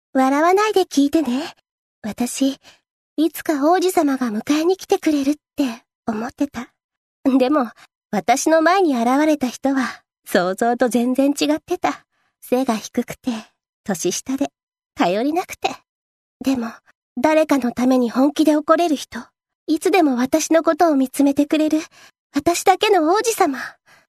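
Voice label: seikaku female iroppoi; f honwaka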